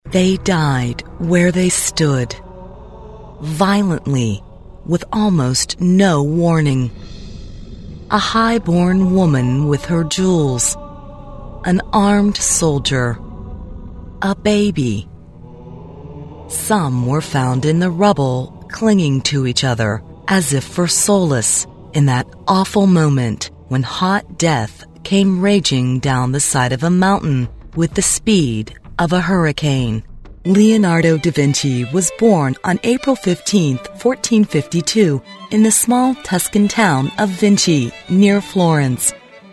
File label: long form narration